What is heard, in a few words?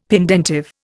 "pendentive", Indian; Dictionary